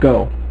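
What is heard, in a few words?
"go", male; MacCribbage; InterStellar MacCribbage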